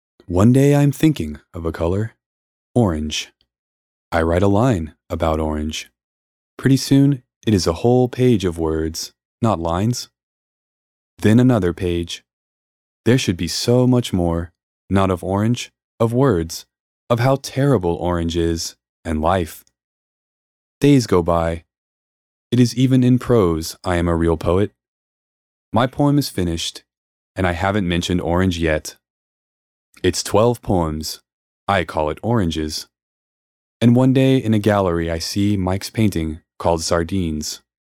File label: Poetry Reading